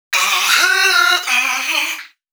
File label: male 130, Dance Ultra Voice adlips; Mutekki Media; Dance Ultra Voice VOL-1